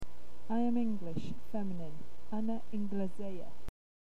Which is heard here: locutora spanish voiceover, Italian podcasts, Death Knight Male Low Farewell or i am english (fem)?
i am english (fem)